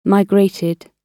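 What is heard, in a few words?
"migrated", British English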